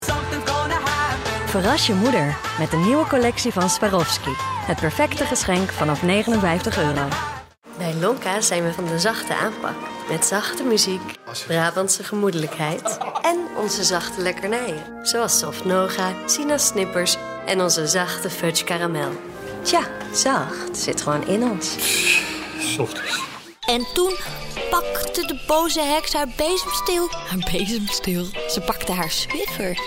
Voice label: Voice Over